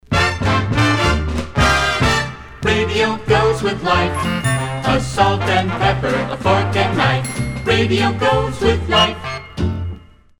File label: radio promo